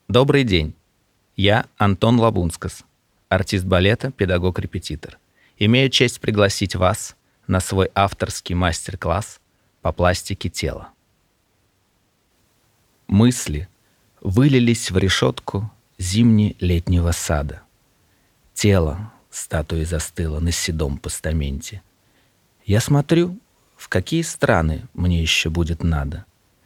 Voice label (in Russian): Диктор, demo